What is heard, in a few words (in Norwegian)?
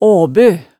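"aabø", Hemsedal dialect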